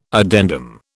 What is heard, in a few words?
"addendum", British